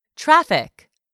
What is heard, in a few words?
"traffic", English; 3000 words